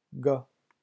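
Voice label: "gars", French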